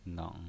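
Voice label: "neng", pinyin voice, Mandarin Chinese, third tone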